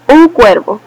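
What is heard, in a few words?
crow, Spanish; animals; birds; tuxpaint-stamps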